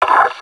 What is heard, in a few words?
pain, combine soldier